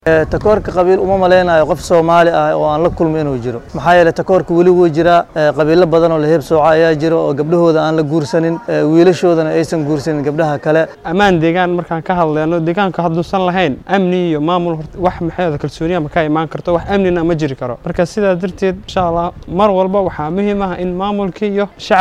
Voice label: VOX POP